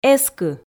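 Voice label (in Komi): "эскы", Komi, female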